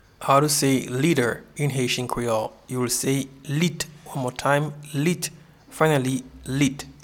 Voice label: Liter in Haitian Creole Lit

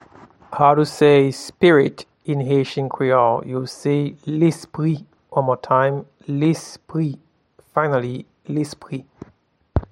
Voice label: Spirit in Haitian Creole, Lespri

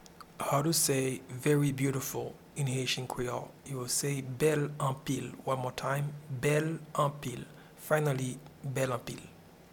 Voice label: Very beautiful in Haitian Creole Bel anpil

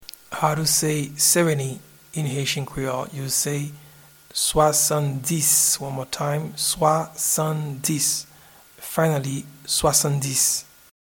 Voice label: Seventy in Haitian Creole Swasanndis

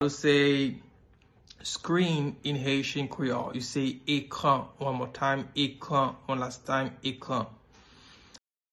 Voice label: Screen in Haitian Creole Ekran pronunciation

